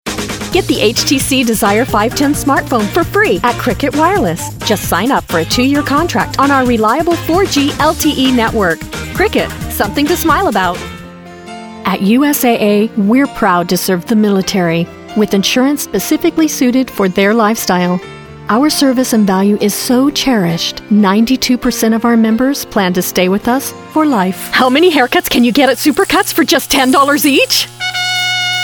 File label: Commercial